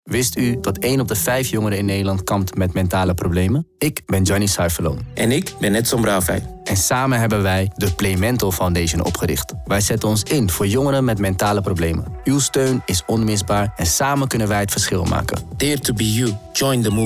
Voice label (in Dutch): RADIOSPOT Muziek; Sky Radio; Playmental Foundation